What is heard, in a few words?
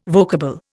"vocable", Indian